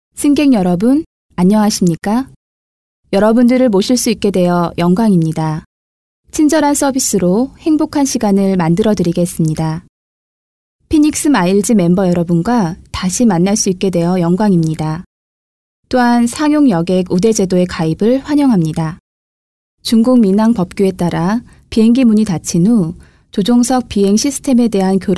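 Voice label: korean female commercial; voice demos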